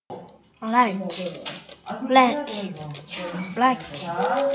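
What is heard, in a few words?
"lick", spoken word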